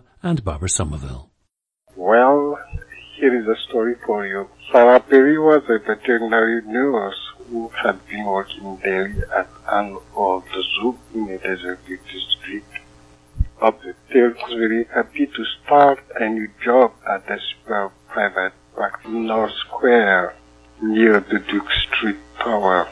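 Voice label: madagascar, dialects of English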